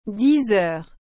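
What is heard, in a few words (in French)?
"dix heures", French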